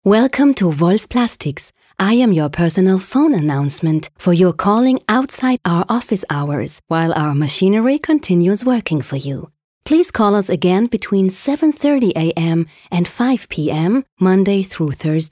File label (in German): IVR Nacht; Wolf Plastics; Sprecherdemo